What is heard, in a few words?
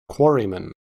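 "quarryman", US English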